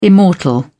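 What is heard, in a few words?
"immortal", British English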